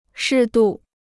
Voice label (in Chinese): "适度", Chinese